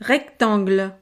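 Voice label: "rectangle", French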